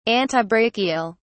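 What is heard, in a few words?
"antibrachial", English, British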